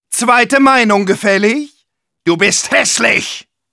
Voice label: Medic taunts, de